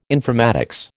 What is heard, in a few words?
"informatics", American